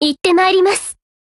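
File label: OPERATION BEGIN Japanese, Voice; GF Alarm; G36C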